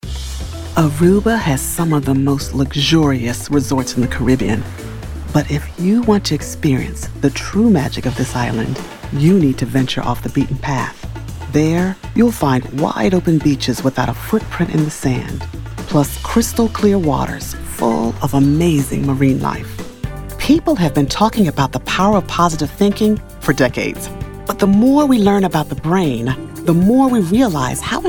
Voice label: Narration Demo